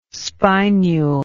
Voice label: "spinule", English